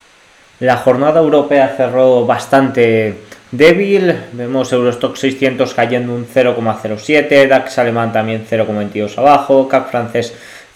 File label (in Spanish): radio; noticias bolsa; cierre europeo mixto y los rendimientos siguen al alza